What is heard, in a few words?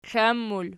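"klan" plural, Archi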